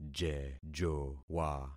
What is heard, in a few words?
"jejowa", concat syllables; Affixing experiment